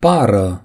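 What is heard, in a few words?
"pear", Romanian; gcompris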